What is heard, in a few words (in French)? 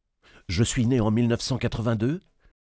narratif tendu